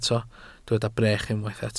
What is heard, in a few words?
"brech", welsh